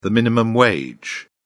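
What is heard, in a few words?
"minimum wage", British English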